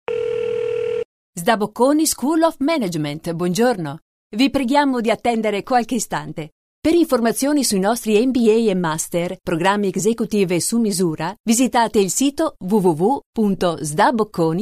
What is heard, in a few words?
italian female ivr